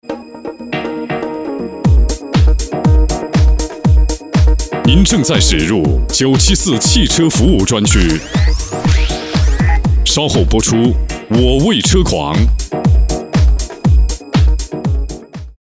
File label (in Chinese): 男 汽车服务专区; 我为车狂